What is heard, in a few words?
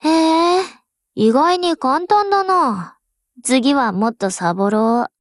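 WIN, Japanese; GF Alarm; M249SAW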